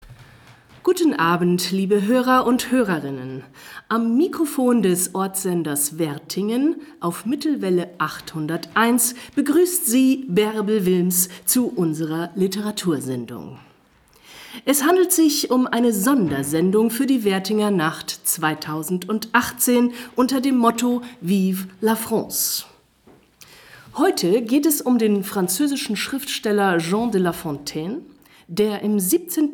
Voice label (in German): ansage